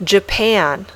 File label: "Japan", English